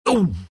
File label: tusk pain